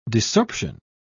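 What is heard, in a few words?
"desorption", British English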